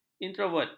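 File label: "introvert", Malay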